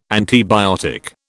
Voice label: "antibiotic", British